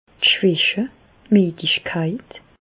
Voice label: "schwache", Bas Rhin; medecine; asthénie

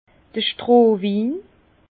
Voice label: "strohwin", Bas Rhin; vin; vin de paille

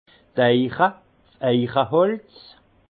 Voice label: "eiche", alsace

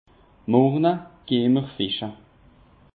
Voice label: "morje", alsace; peche

